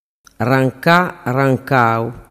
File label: "rancarancau", dialettu